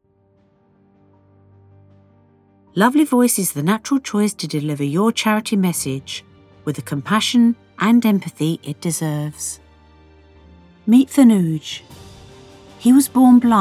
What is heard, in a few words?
Lovely Voice Charity